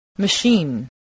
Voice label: "machine", spelling voice

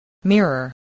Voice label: "mirror", voice, spelling

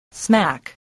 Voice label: "smack", voice spelling

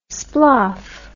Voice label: "sploff", English

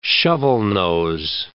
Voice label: "shovelnose", English

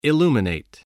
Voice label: "illuminate", voice spelling

